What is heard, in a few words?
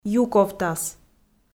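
"юковтас", Komi, female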